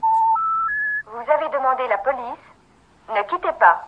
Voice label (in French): demandez police ne quitter pas, Voicemail Messages